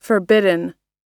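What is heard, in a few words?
"forbidden", American English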